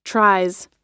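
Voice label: "tries", US English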